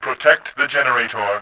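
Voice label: defend generator, voice, Bot; Tribes2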